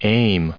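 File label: "aim", US English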